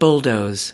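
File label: "bulldoze", US English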